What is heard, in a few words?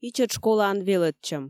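"ичӧт школаын велӧдчӧм", Komi, female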